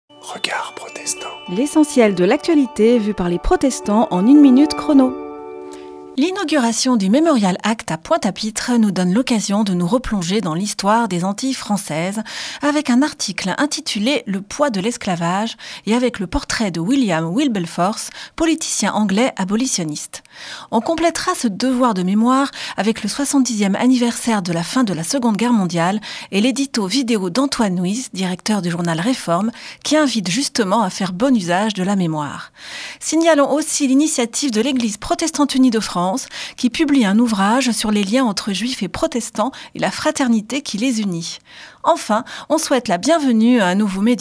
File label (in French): Spot radio; Regards protestants